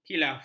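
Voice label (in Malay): "khilaf", Malay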